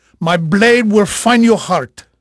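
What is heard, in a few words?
Spanish male, voice; Pirates Of The Caribbean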